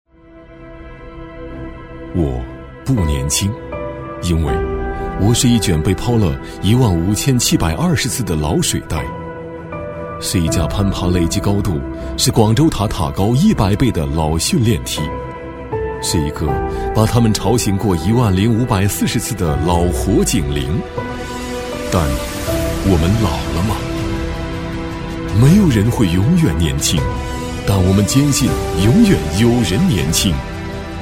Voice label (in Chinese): 男, 宣传片, 消防救援大队, 走心抒情; 走心旁白类型; 男140